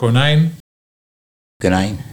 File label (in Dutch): "Knijn", Nieuw lekkerlands dialect